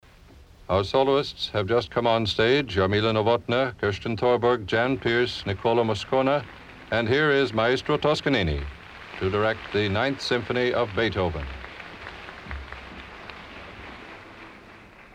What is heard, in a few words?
Announcer; Beethoven Symphony 9; Toscanini; 1939